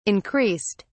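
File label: "increased", English, British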